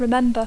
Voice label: "remember", English